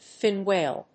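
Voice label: "fin whale", English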